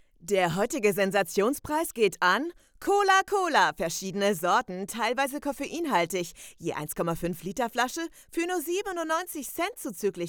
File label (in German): Werbung frisch